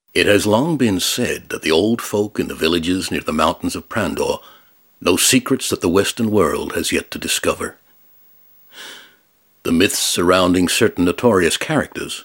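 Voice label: male English documentary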